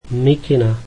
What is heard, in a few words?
slovak sweater